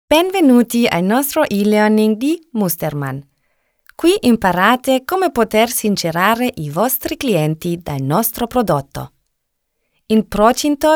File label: elearning, ita; sprecher